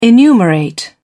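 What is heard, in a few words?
"enumerate", English, British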